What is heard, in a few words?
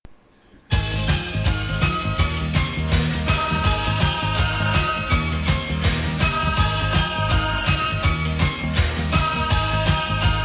christmas spot